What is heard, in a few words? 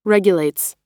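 "regulates", US English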